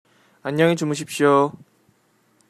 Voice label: good night, korean

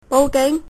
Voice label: police, cantonese

